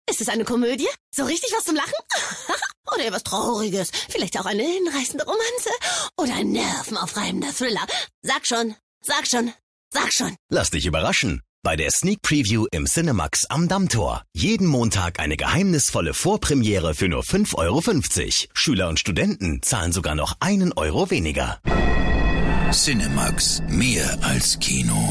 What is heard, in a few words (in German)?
Funkspot; Cinemaxx